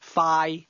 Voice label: "fast", cantonese; adjectives